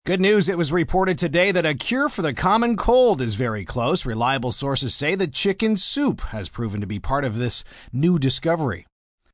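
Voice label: News Cure Male, Broadcast, news, male news item; on light health, cure for common cold.; human voice speaking; VoiceBox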